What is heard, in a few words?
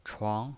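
"chuang", Mandarin Chinese pinyin voice, second tone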